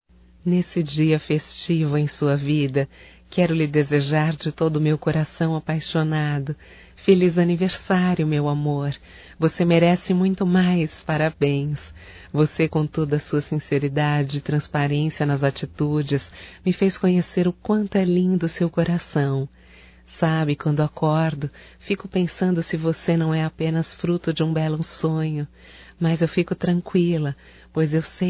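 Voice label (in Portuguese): romântico female, Telemensagens Aniversarios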